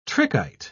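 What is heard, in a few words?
"trichite", British English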